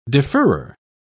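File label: "deferrer", British English, dictionary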